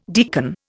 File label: "deacon", Indian